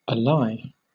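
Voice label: "ally", British English; pronunciations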